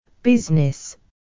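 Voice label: "business", English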